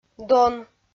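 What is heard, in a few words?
"дон", Komi, female; kpv audio dict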